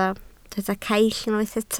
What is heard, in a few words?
"caill", Welsh